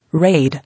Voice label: "Raid", English